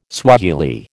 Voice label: "Swahili", American